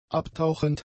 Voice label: "abtauchend", german; adjectives